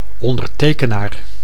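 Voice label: "ondertekenaar", Dutch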